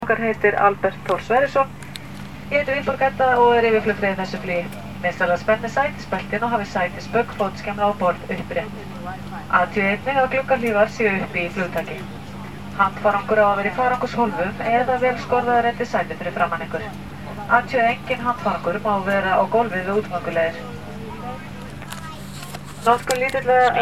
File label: Iceland Air Flight Attendant Voice